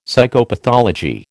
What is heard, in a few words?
"Psychopathology", American